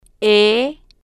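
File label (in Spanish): "e", spanish, female, slow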